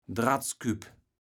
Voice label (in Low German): "Dratzküpp", kaevels platt